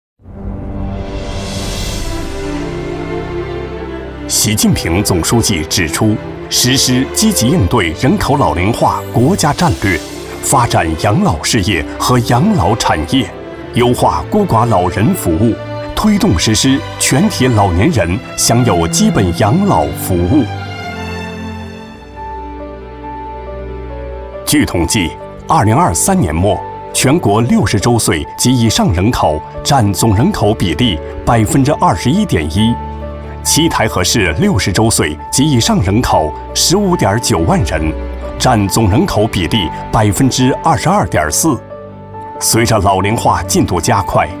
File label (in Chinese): 男 企业; 宏伟康养